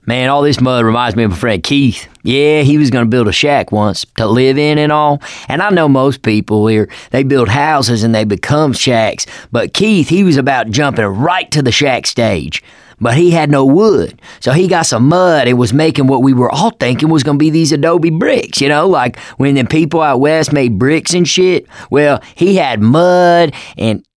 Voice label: stories, survivor voice; ellis; Left 4 Dead 2; mechanic

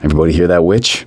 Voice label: heard witch, survivor voice; gambler

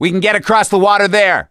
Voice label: misc directional, voice; gambler

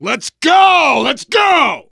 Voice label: hurry up, voice, biker; Left 4 Dead 2; left4dead2 dlc3; survivor

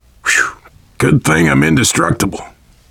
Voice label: getting revived, voice, biker

